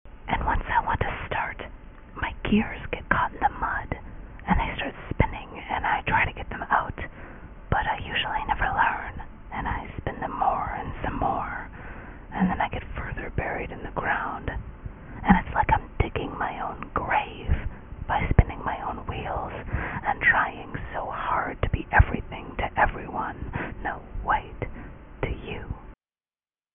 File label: whisper